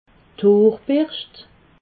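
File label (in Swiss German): "hoorberscht", Alsatian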